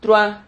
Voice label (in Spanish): "trua", Silabas